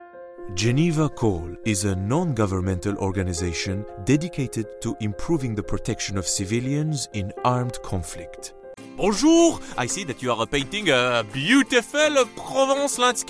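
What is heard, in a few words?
French, English accent; GoLocalise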